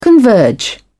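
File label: "converge", British English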